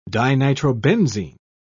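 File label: "dinitrobenzene", British English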